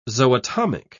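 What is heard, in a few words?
"zootomic", British English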